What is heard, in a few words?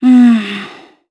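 Vox Think, Japanese; Valance; kingsraid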